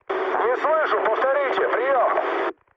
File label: chat, speech ru